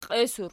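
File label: "qies" plural, Archi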